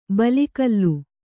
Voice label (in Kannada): "ಬಲಿಗಲ್ಲು", Kannada